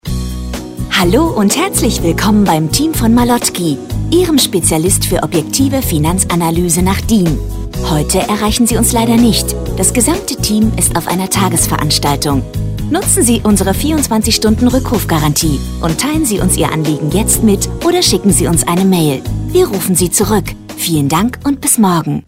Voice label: Ansage Spontan außer Haus

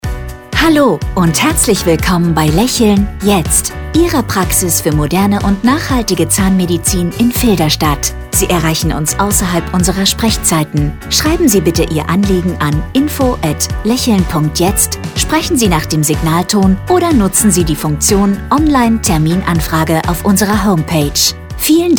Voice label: Ansage; Telefonansage Zahnarzt

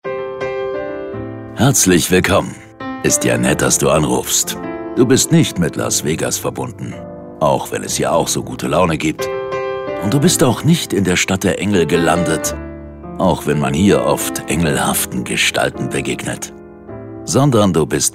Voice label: AB Ansage; Haushaltsperlen

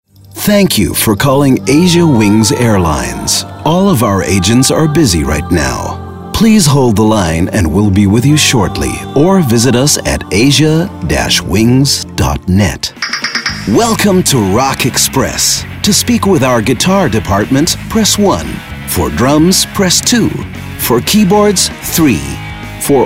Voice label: telefonansagen sprecher us; Native Speaker

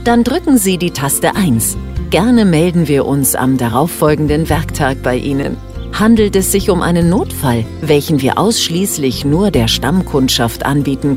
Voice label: Interne Schulung, Telefonansage Interne Schulung; Sanitär & Heizung (SHK); Thomas Faißt BAD I SANITÄR I HEIZUNG

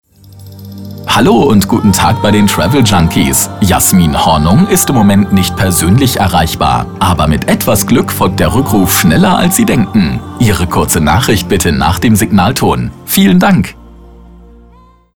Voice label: telefonansagen mobilbox; traveljunkies tours

